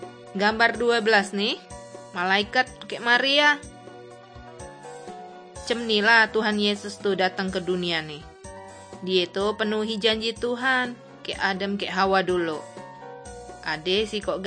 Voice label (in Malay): kabar baik good news malay bangka